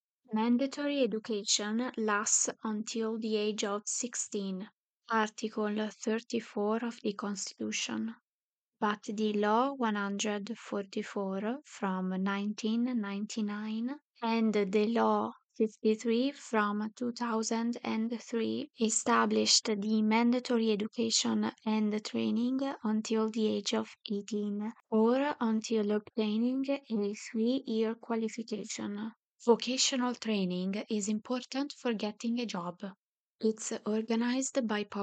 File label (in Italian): corsi di formazione, inglese